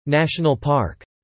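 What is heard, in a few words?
"national park" spoken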